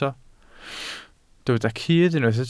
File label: "cudd", Welsh